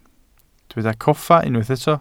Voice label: "coffa", Welsh